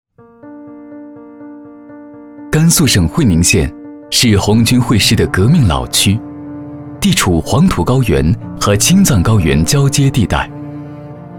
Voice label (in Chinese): 公益配音